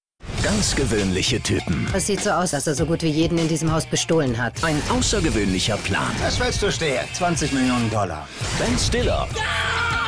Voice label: spot; aushilfsgangster

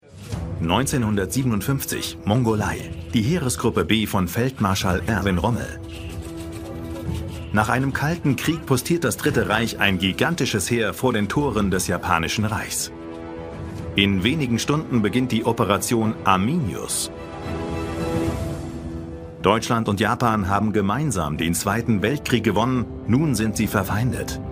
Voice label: TV Voiceover; ARTE; Im Lauf Der Zeit

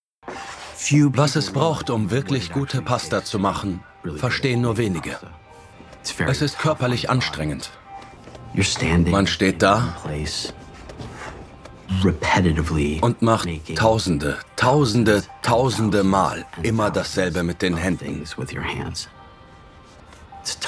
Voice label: Voiceover, Comment (Kommentar), Doku, Off, German; LOFT VOICES

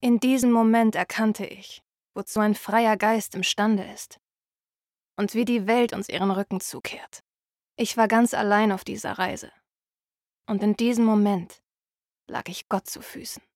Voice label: Off Serious

